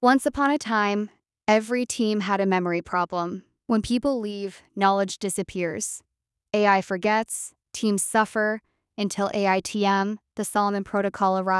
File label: voiceover